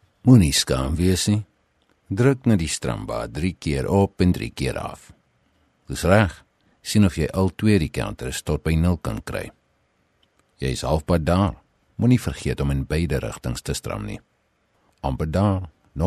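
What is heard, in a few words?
Afrikaans male audibook; voice over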